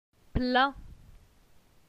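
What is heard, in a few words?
"Plein", French; phonetique; les voyelles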